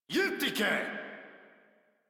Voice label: Attack, Modular Corpus Male; Warframe